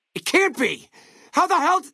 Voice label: male adult dialogue greeting; Fnv